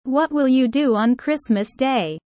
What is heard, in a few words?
low; four seasons